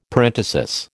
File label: "parenthesis", American